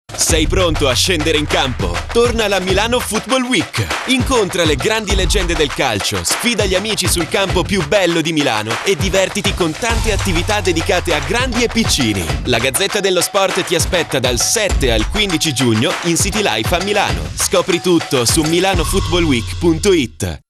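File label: Italian, Commercial; voice demos; GoLocalise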